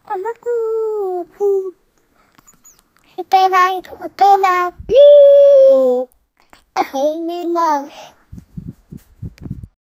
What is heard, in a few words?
a boy saying its a